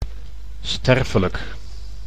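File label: "sterfelijk", Dutch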